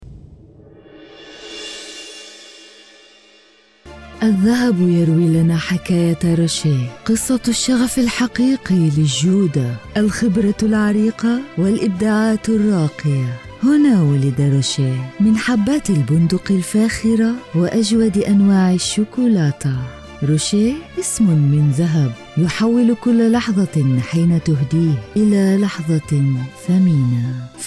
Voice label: arabic promos

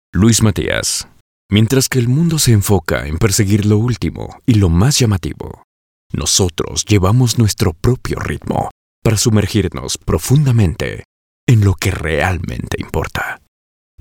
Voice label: spanish latin american corporate videos

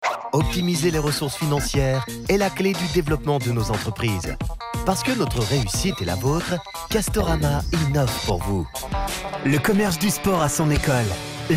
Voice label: french switzerland documentaries

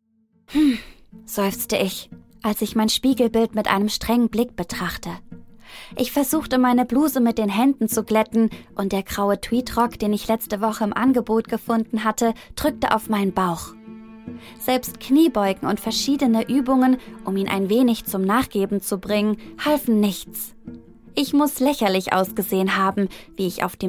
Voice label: german audiobooks

